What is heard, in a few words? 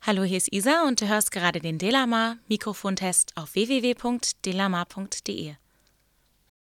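speech female; heilsound pr40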